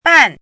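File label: "ban", Mandarin Chinese, fourth tone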